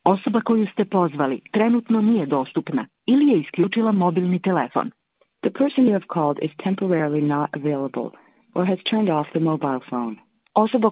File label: Unknown Serbian virtual operator Number unawailable; Zagranica